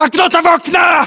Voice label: landmark near window, english; localized english